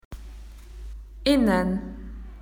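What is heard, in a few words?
"inside", Australian English; PictureDictionary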